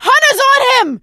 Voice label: hunter pounced, survivor voice; Left 4 Dead 2; Source Engine; video games; producer